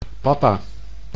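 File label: "papa", Dutch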